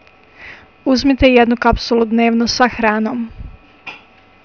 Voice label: Bosnian, Take one capsule daily with food.; Uzmite jednu kapsulu dnevno sa hranom.